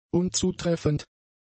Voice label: "unzutreffend", German; adjectives